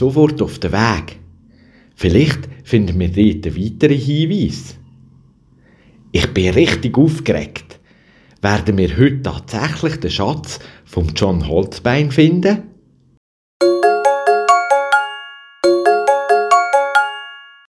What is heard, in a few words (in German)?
Posten Palme mit Jingle